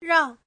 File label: "rang4", Chinese